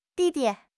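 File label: "di4 di5", Chinese; HSK2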